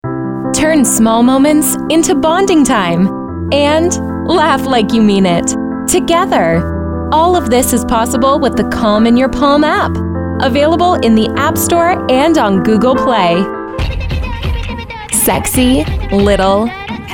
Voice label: VOICE OVER